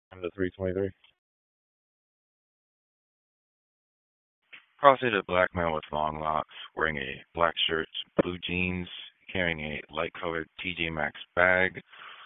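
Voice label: Police Dispatch; Raleigh; Northeast District; wake; p25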